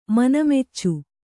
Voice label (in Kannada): "ಮನಮೆಚ್ಚು", Kannada